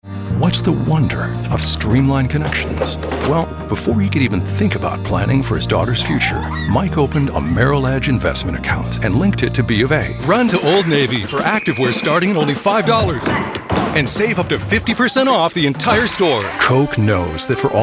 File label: commercial